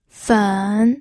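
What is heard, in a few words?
"fen", pinyin, third tone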